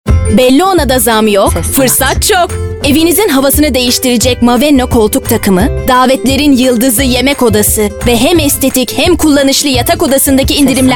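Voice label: Turkish, female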